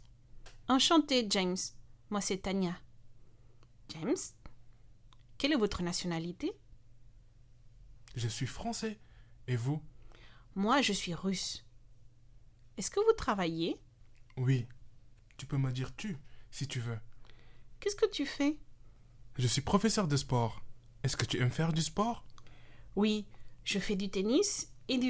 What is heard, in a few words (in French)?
fête des voisins dialogue